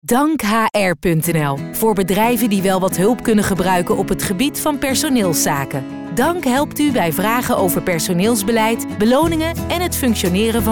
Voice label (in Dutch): Radio spot